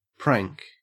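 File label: "prank", Australian English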